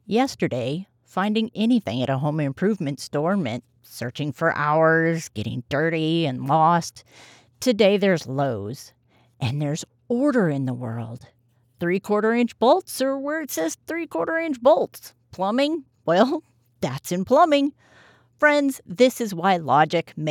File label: Commerical